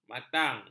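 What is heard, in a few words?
"matang", Malay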